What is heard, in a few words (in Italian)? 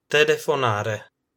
"telefonare", Italian